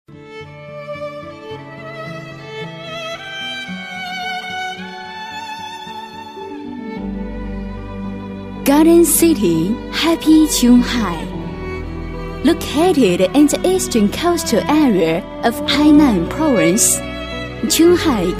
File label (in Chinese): 英女 英语专题; 田园城市幸福琼海